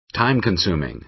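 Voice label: "time-consuming", American English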